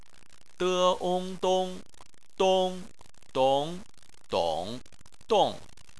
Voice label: "dong", Chinese